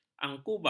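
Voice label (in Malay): "angkubah", Malay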